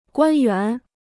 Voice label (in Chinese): "官员", Chinese